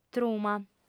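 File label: "truma", Sardinian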